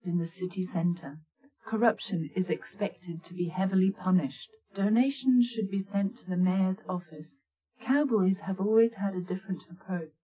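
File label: female speech; SiSEC10; underdetermined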